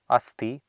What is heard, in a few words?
"asti", Sanskrit